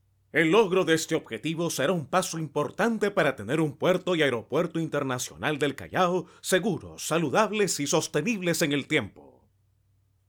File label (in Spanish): VOZ CARACTERIZADA